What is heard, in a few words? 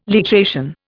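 "literation", Indian English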